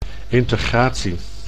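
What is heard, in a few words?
"integratie", Dutch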